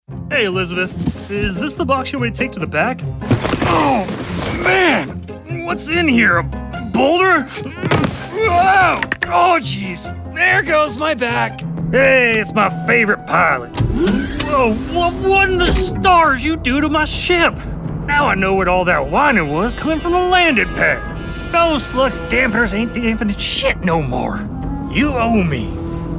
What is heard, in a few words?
vo character